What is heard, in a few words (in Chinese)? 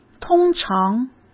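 "通常", Mandarin Chinese; hsk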